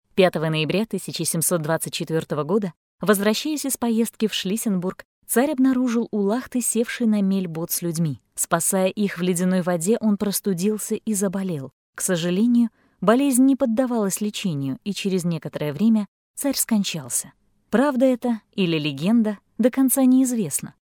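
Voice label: Russian Russia female narrative; russian voice over talent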